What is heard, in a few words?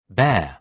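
"bear", British English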